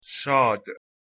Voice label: "shad", Persian